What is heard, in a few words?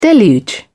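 "deluge", British English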